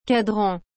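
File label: "cadran", French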